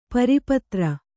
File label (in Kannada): "ಪಾರಪತ್ರ", Kannada